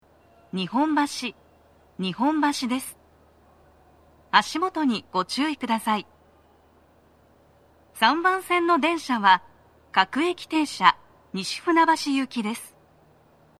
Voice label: 到着放送, 女声; 3番線 西船橋・東葉勝田台・津田沼方面; 足元注意喚起放送が付帯されており; 放送は「各駅停車 西船橋行」です。

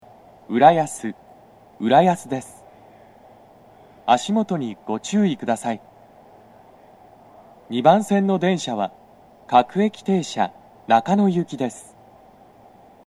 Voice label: 到着放送, 男声, 放送は「各駅停車 中野行」です。; TOA円型での収録です。; 2番線 中野・三鷹方面

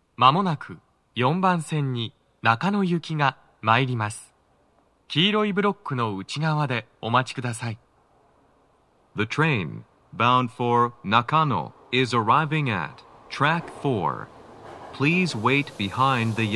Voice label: 接近放送, 男声, 放送は「中野行き」です。; 4番線 中野・三鷹方面

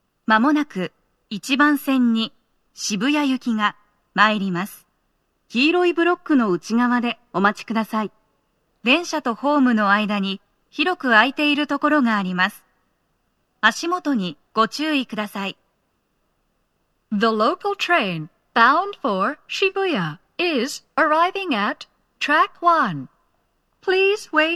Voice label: 接近放送, 1番線 渋谷方面, 女声; 放送は「渋谷行」です。; 銀座線; 鳴動は、やや遅めです。

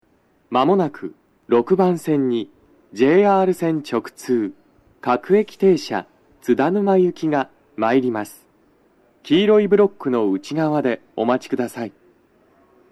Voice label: 接近放送 男声, 各駅停車 東葉勝田台行 (混雑付帯); 6番線 中野・三鷹・東葉勝田台・津田沼方面; 快速 の通過待ちはありません; 収録者:こたけ

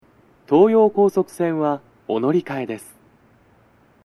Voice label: 扉閉め放送は、「東葉高速線は、お乗換えです。」; 扉閉め放送の、乗り換え路線パーツを抽出した被り無し音声を載せてみました。; 乗り換え放送; 収録者:こたけ

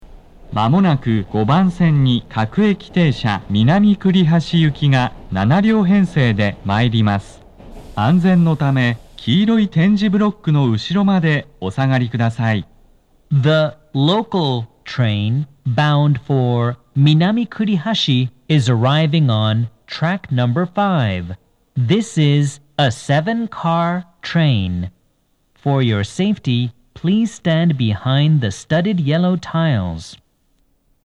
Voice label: 接近放送, 放送は「南栗橋行」です。

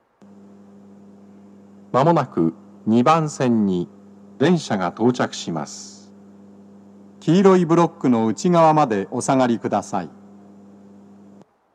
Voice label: 接近放送, 簡易放送, BOSE天井型での収録です。; 放送は「簡易放送」です。

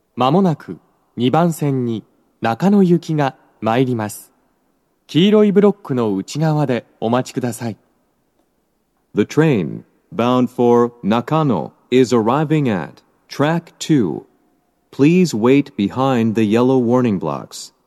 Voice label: 接近放送, 男声, BOSE天井型での収録です。; 2番線 中野・三鷹方面; 放送は「中野行き」です。

